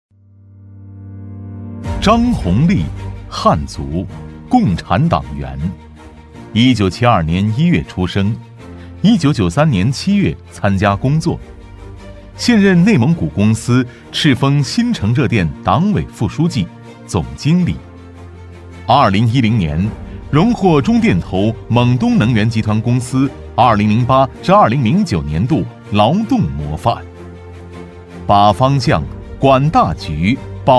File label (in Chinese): 男 人物颁奖 大气有力; 男B15-稳重磁性; 大气激昂